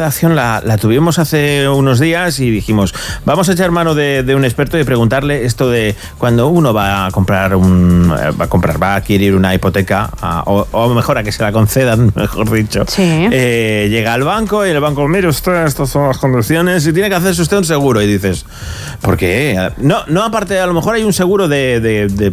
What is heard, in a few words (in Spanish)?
entrevista radio; seguros hipotecas; radio sirena COPE